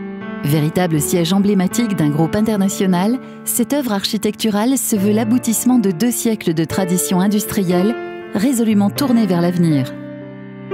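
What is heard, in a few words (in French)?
film institutionnel construction orangerie, voix off femme; cmi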